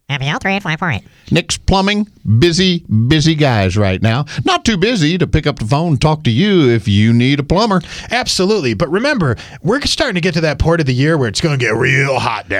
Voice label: RADIO AD; nicks plumbing radio ads